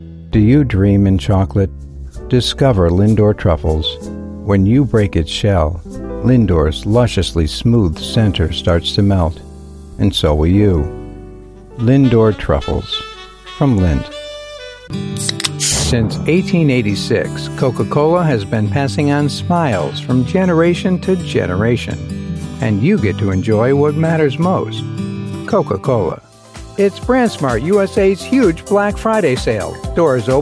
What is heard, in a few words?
American Male